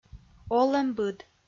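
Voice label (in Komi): "олӧмбыд", Komi, female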